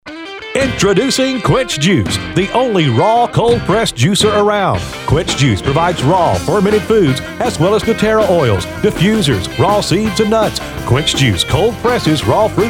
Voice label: radio; quench